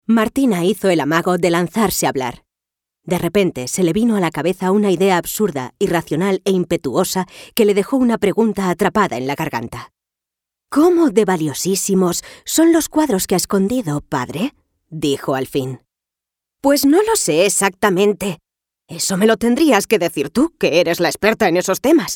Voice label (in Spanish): audiolibro